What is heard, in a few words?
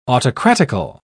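"autocratical", British English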